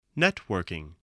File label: "networking", voice